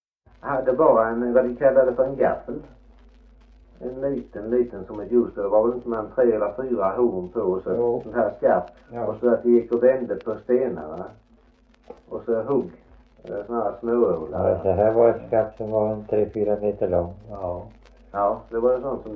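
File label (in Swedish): Värö Halland, dialekter; Folkminnen; Svenska sagor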